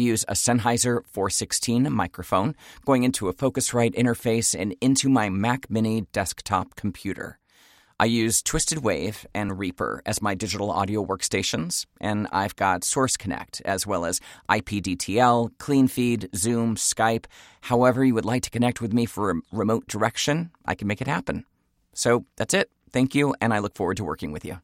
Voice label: Dry Studio Sample